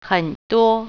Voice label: "a lot", Mandarin